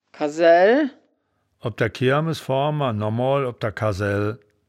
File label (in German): "kassell", wittlich